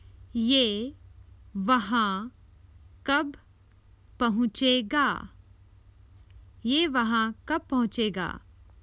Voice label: When does it arrive there, Common Hindi Phrases for travel